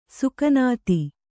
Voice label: "ಸಘಾನತೆ", Kannada